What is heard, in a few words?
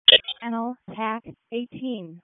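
Raleigh Fire Department ALERT, p25; wake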